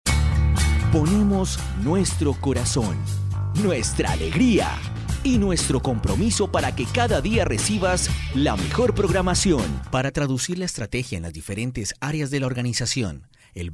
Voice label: male spanish commercial